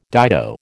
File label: "dido", American